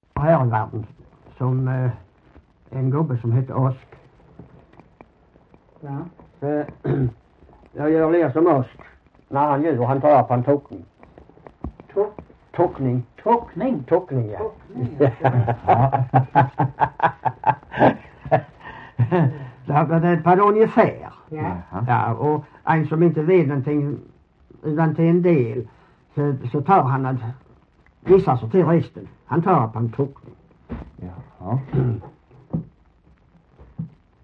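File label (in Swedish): Hörja Skåne, svenska sagor dialekter; Folkminnen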